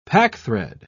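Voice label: "packthread", British English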